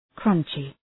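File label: "crunchy", English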